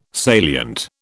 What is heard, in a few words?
"salient", British